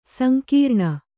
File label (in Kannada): "ಸಂಗ್ರಾಣ", Kannada